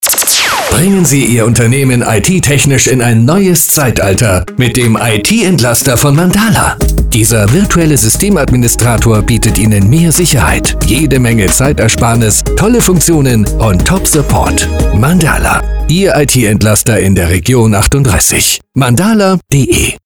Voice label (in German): Funkspot